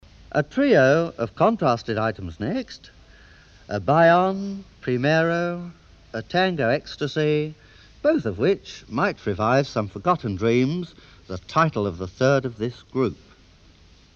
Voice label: Announcement